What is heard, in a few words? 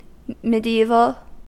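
"mediaeval", American English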